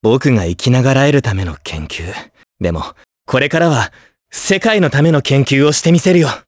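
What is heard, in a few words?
vox Japanese; Evan; kingsraid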